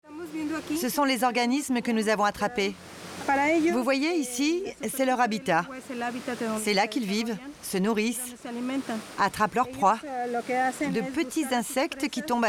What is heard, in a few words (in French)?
voix off; insectes